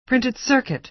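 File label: "printed circuit", American English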